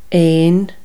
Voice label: "bird", Irish

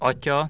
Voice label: voiceless palatal stop medial, hungarian

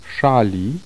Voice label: voiceless retroflex initial, polish

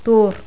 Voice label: dental rhotic, toda

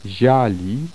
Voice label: voiced alveolopalatal initial, polish

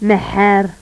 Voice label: voiceless epiglottal fricative medial, agul

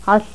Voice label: voiceless retroflex lateral, toda

